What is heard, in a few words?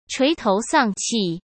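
"垂头丧气", Mandarin Chinese; hsk; chinese-flashcards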